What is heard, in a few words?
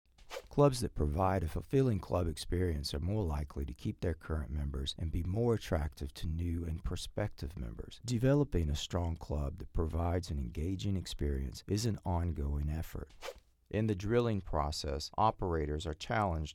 Neutral English